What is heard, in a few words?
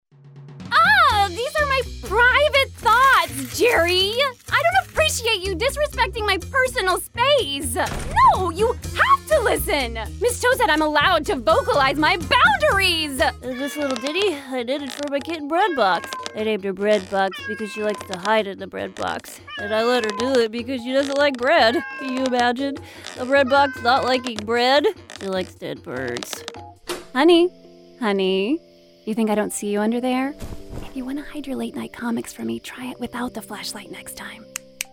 character